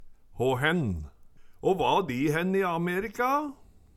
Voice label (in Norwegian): hå henn, Numedalsmål; Hå va di henn i Amerika a?